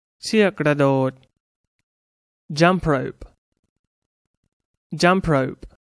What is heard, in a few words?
"jump rope", English; Toys